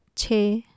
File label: "chhe", Urdu, six